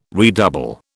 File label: "redouble", British